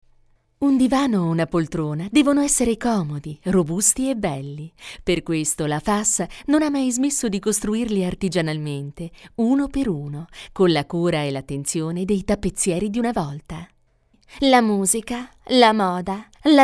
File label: ITALIANO IMPOSTATA; FEMMINILE; Speaker Madrelingua